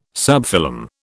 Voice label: "subphylum", British